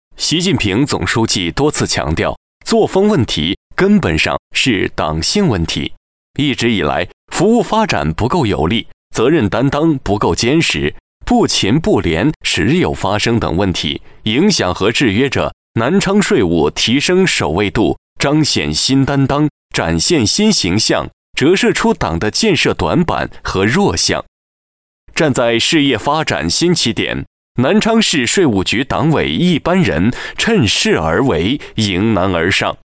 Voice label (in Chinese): 男 党建 材料汇报